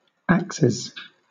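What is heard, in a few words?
"axes", British English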